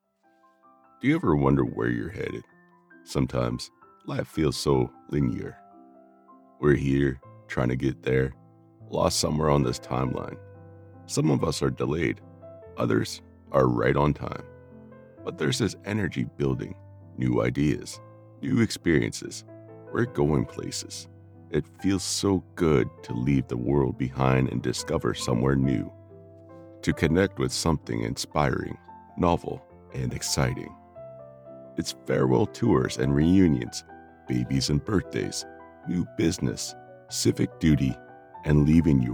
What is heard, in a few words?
Texan, Deep authoritative male voice, Conversational genuine bariton Male Voice; OKC